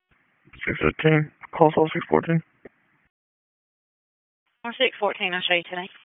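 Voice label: Dispatch, p25; WSO DISP 1; wake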